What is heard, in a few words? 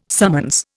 "summons", Indian